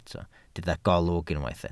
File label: "golwg", Welsh